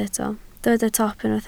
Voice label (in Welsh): "top", Welsh